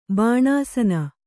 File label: "ಬಾಣಾಸನ", Kannada